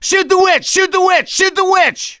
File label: witch chasing; gambler